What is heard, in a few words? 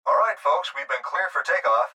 Pilot Voice Take Off